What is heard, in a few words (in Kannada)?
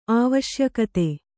"ಆವಶ್ಯಕತೆ", kannada